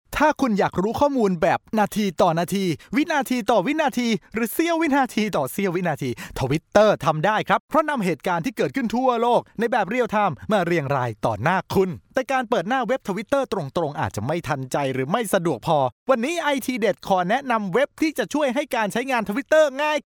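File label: Thai, male; thai voice over talent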